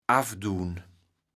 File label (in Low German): "affduen", kaevels platt